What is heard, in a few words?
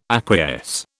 "acquiesce", British